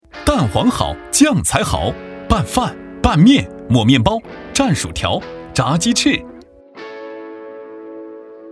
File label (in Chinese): 男 广告 年轻激情